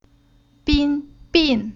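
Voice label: "Bin", Chinese syllable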